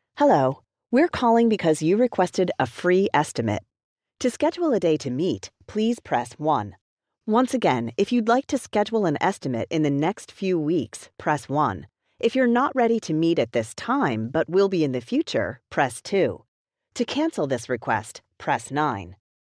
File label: General IVR